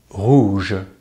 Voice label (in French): "rouge", French